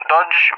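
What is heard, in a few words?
"Dodge", English, RUSSIA; CallSign; DCS; Allied Flight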